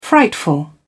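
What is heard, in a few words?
"frightful", British English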